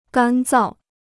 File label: "干燥", Chinese